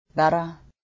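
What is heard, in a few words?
better, English, North American, US South, Alabama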